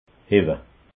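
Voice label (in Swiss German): "hewwe", Alsatian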